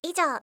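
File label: "ijou" Japanese; kumiawase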